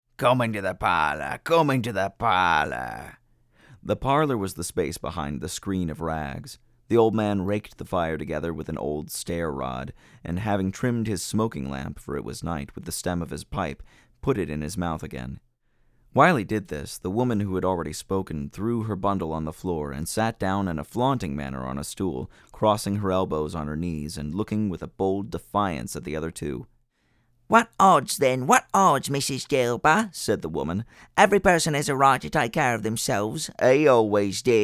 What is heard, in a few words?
Old Ladies; A Christmas Carol